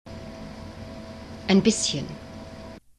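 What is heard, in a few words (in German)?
"ein bisschen", German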